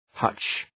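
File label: "hutch", English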